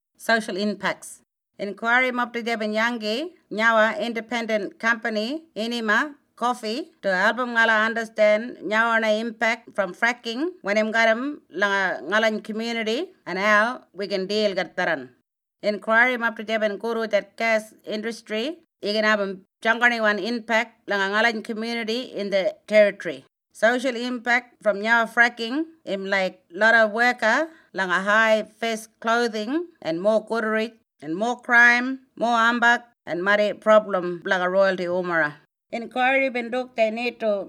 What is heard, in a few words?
Ngarinyman; SOCIAL IMPACTS